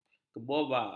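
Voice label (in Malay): "keboba", Malay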